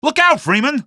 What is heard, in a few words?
look out, male; Half-Life 2